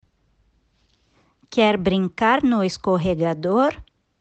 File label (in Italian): Portoghese